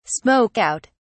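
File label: "smoke out", British English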